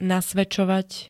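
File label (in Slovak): "nasvedčovať", Slovak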